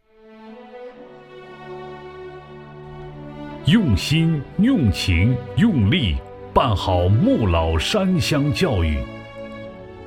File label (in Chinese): 男 学习专题 教育; 仫佬山乡